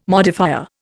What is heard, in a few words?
"modifier", Indian